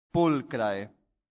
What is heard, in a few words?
"pulchrae", Latin; santillana